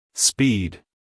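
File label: "speed", American English, male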